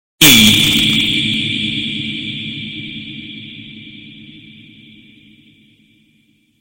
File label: e loud